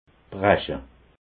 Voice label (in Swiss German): "brache", alsace